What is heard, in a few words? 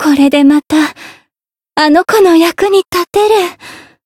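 growth, Japanese; ewella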